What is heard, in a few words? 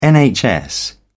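"nhs", British English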